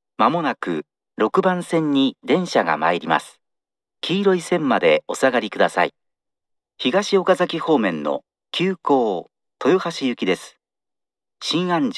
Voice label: approach exp, st announce; toyohashi; meitetsu; chiryust